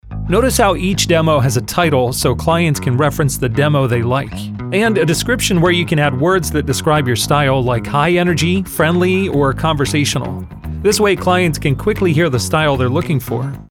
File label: voice over